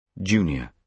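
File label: "junior", British English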